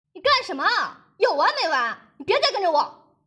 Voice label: 生气

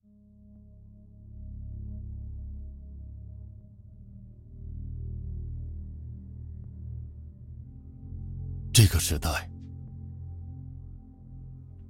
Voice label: 大气汽车类广告

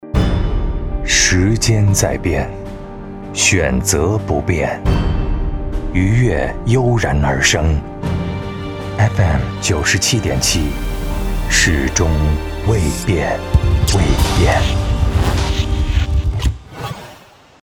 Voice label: FM977频道台呼

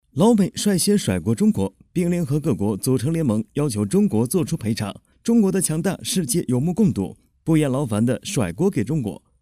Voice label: 模仿

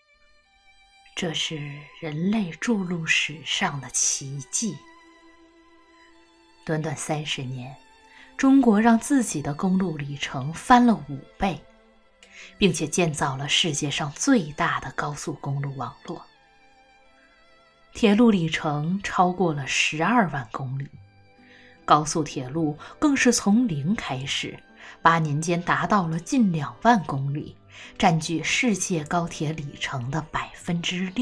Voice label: 慵懒

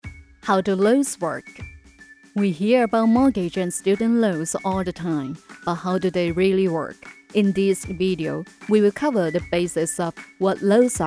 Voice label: 英文

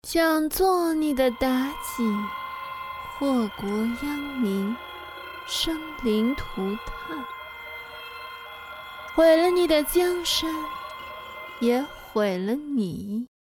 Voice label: 妖媚慵懒

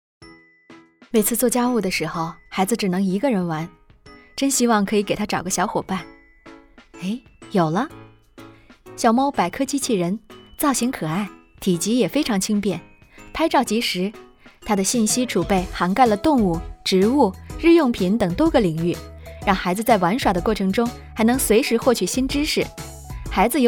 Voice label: 广告亲切自然风格小机器人

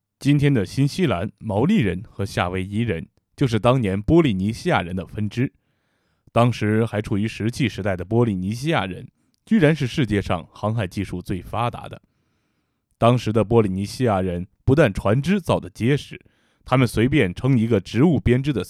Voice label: 纪录片

